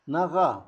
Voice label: "al rato", Zapoteco Magdalena Ocotlan; Adverbios; INALI; Haspelmath

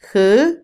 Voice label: cazar, Otomí, mujer; INALI

